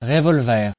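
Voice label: "revolver", French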